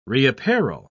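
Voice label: "reapparel", British English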